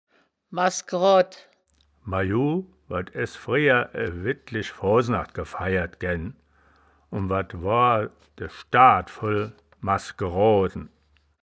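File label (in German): "maskroad", Wittlicher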